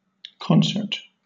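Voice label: "concert", British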